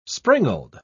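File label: "springald", English, British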